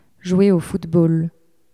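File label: "jouer au football", French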